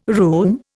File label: "room", Indian